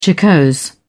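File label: "jocose", British English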